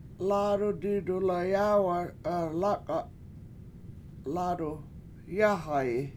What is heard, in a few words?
My Hands Are Black And One Hand Hurts, washo; lAAdu didulayAAwa7 lAk7a7 lAAdu yAhayi